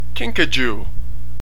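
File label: "kinkajou", American English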